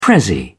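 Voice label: "prezzie", British English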